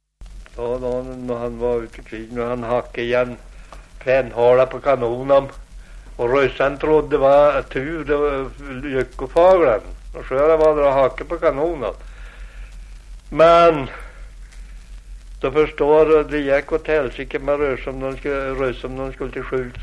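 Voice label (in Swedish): Aspås Jämtland, dialekter; Folkminnen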